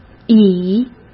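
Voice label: "乙", Mandarin Chinese; chinese flashcards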